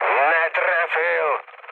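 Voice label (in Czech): Czech bomb failure, mood low; speech; War Thunder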